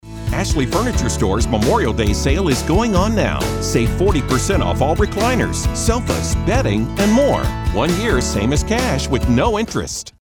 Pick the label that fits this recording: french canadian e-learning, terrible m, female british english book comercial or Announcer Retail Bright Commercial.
Announcer Retail Bright Commercial